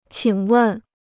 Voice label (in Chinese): "请问", Chinese